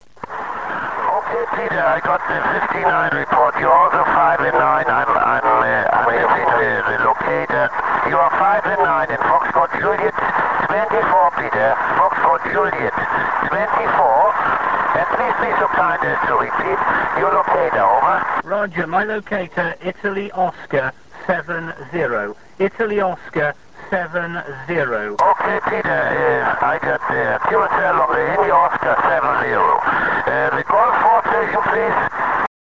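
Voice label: amateur radio contact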